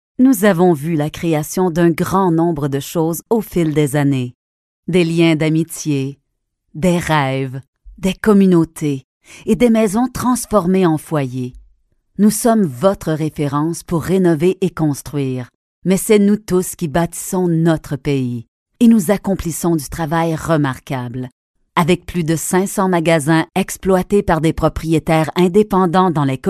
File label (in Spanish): Femenino